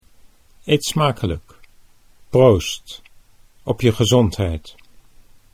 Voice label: "eet smakelijk proost", Dutch

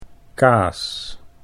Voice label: "kaas", Dutch

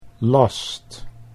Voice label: "last", Dutch

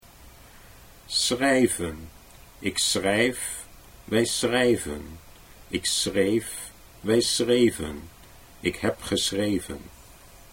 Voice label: schrijven ik schrijf, Dutch

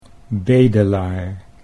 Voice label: "bedelaar", Dutch

